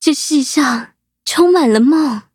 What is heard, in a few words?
battle zh-hant